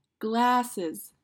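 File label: "Glasses", English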